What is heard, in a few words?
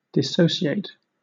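"dissociate", British English; pronunciations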